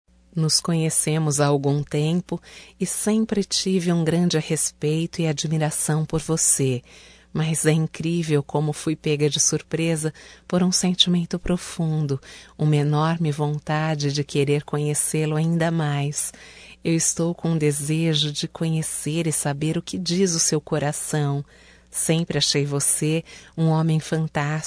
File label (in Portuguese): PAQUERA II, Só Voz, fem; Telemensagens; ROMÂNTICAS